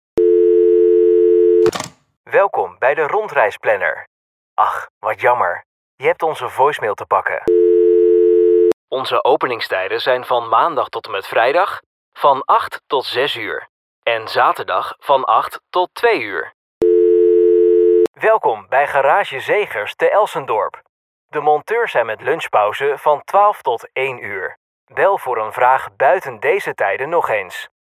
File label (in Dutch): TELEFOON, voiceover